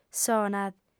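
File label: "sona", female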